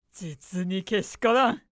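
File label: male; 実にけしからん; パワフル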